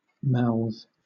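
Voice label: "mouth", British English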